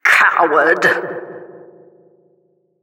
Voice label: Announcer last man forfeit